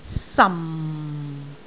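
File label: "sam4", Cantonese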